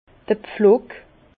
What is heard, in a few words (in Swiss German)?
"pflock", Bas Rhin; vin; goujon